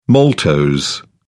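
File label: "maltose", English, uk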